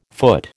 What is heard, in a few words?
"foot", American